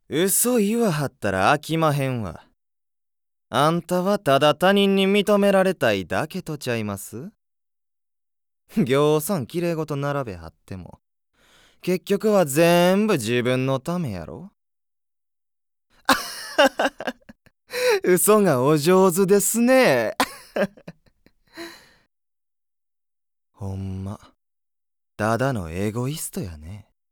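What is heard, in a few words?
voice sample, Kyoto dialect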